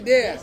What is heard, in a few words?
"dea", Ansus; light